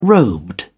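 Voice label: "robed", British English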